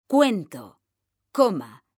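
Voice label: "CUENTO COMA", Spanish; mekanta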